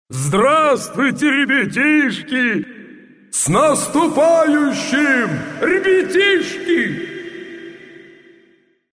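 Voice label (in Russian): golos deda moroza, Father Frost voice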